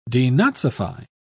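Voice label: "denazify", British English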